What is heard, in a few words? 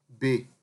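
"bay", Nigerian Pidgin, male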